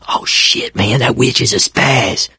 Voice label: witch getting angry, survivor voice mechanic; source engine; left 4 dead 2